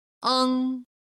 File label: "eng 1", Chinese